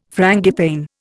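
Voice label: "frangipane", Indian English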